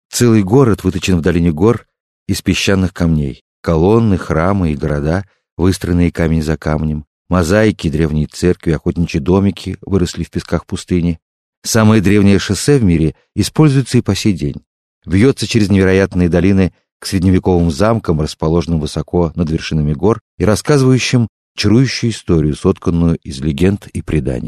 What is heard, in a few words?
russian male